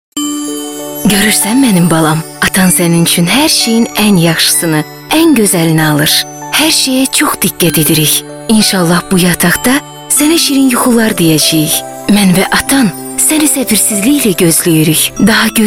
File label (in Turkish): Azerice Kadin, seslendirme